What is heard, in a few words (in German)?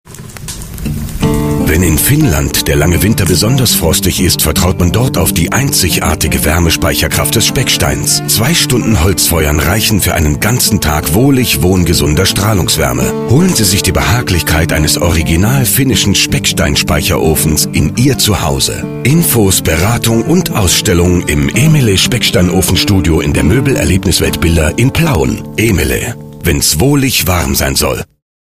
werbung